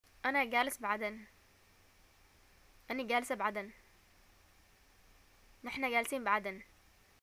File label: i live in aden, arabic